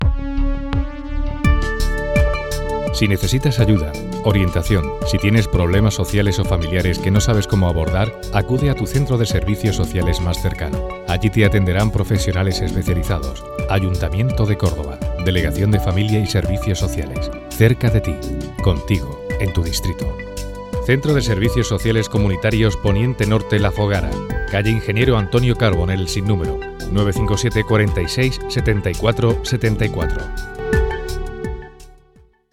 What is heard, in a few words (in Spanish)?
radio; PONIENTE NORTE